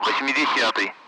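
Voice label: Speech, Russian, 8th; Allied Flight; DCS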